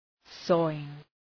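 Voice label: "sawing" English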